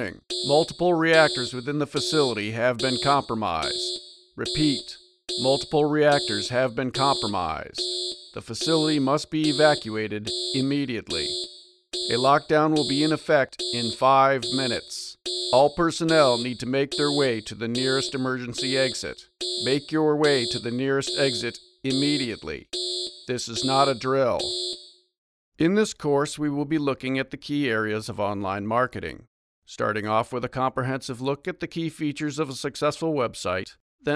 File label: Corporate Narration